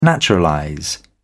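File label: "naturalize", British English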